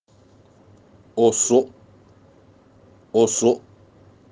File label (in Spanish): "osok", palabra fang